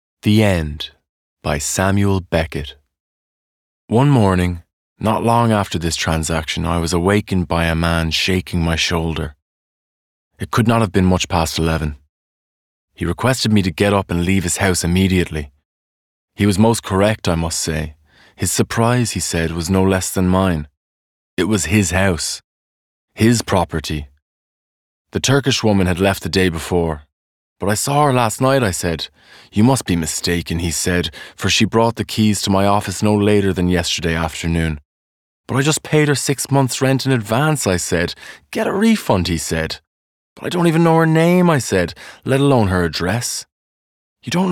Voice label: narration